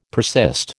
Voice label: "persist", American